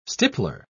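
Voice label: "stippler", English, British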